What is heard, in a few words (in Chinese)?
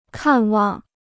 "看望", Chinese